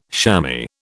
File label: "shammy", British